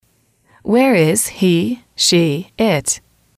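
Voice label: where is he she it, female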